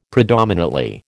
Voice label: "predominately", American